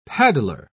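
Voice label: "paddler", British English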